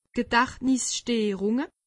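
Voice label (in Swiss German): "gedachtnissteerunge", Alsatian; medecine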